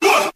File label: what group of men